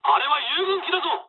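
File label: player friendly fire mood low, speech japanese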